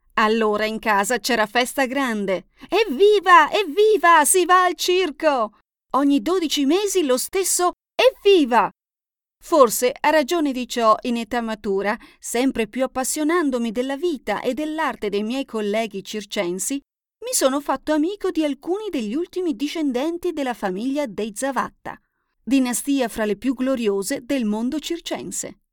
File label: Audio Book Narrativa